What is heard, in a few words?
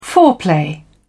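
"foreplay", British English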